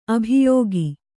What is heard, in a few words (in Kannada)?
"ಅಭಿಯೋಗ", Kannada